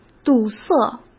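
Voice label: "堵塞", Mandarin Chinese; hsk; chinese-flashcards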